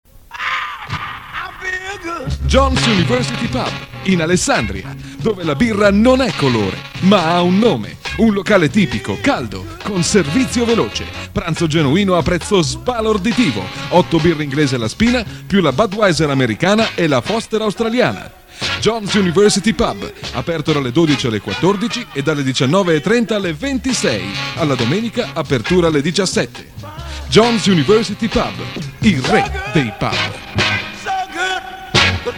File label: spot; Johns University Pub